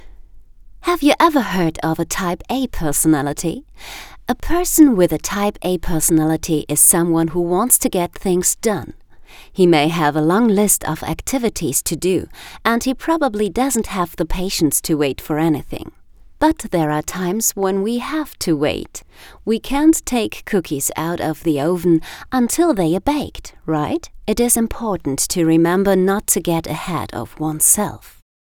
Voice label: Eng UK Freundlich

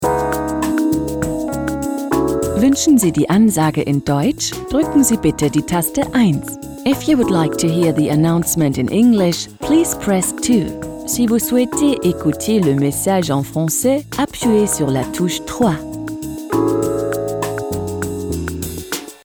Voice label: Fremdtake Neutral

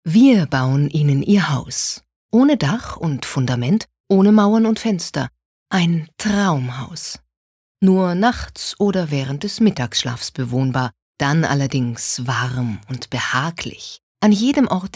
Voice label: Werbung Freundlich

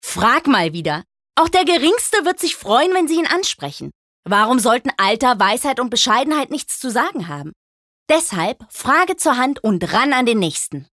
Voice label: Werbung Dynamisch

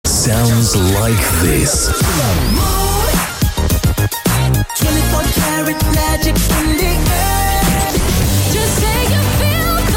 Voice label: Eng UK Fremdtake Dynamisch

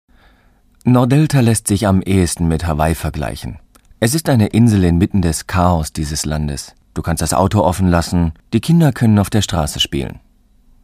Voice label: Kommentar Neutral

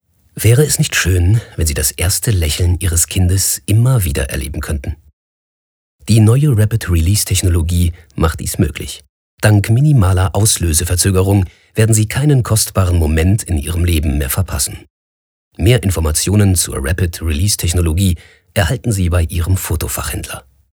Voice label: Rapid Release Freundlich

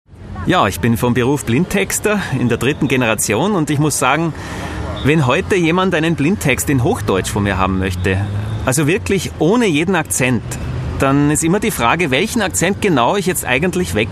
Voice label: Dialekt Oesterreichisch

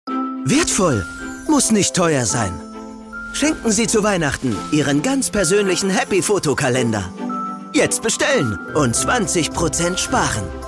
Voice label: Dynamisch; Fremdtake

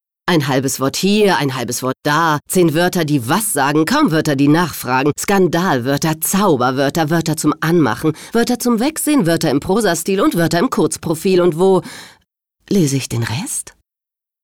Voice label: W Fremdtake Dynamisch

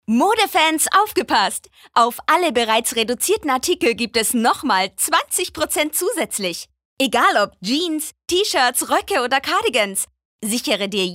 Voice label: Dynamisch

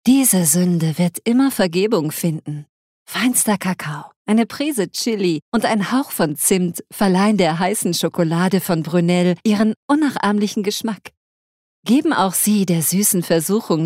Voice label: Sanft

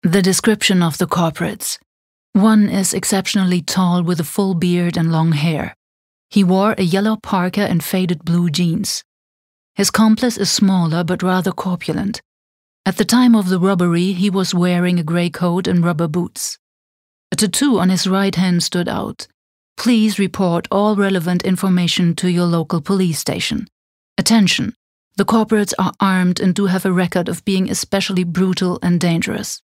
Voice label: Eng Kommentar Neutral

